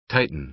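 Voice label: "tighten", American English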